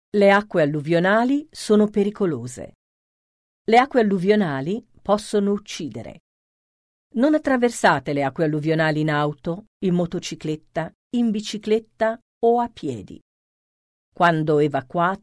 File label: italian floodsafe audio